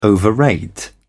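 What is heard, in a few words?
"overrate", British English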